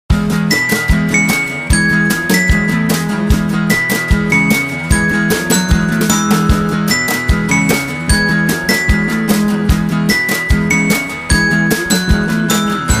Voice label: English; wacom cs100k bamboo capacitive pen stylus ipad tablet